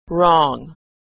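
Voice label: "wrong", American English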